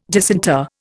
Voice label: "disinter", Indian